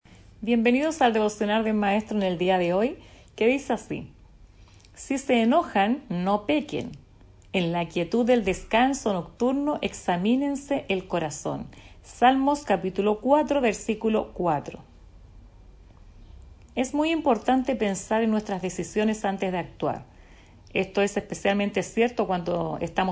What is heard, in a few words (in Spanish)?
devocional